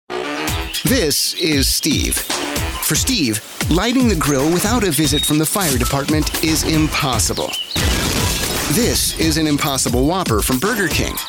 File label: Commercial VO